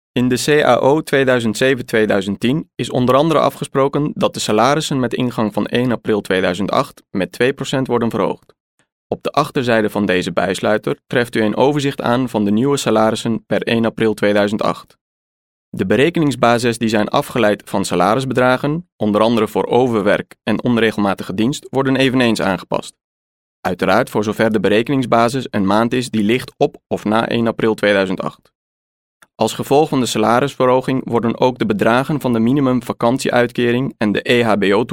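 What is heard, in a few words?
dutch male narration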